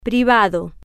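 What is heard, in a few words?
"privado", Spanish, female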